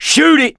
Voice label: battle cry tank, mechanic, survivor, voice

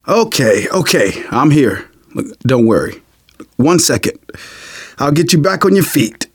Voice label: revive friend, survivor voice manager

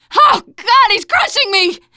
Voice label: tank pound, teen girl; player; survivor; voice

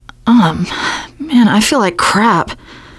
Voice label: going to die, teengirl, voice; player; survivor

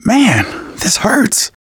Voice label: going to die light, manager, survivor, voice; Left 4 Dead 2; left4dead2 dlc3

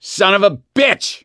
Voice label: reaction negative, voice; gambler

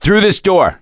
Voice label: misc directional, voice; gambler

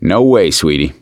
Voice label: no female; gambler

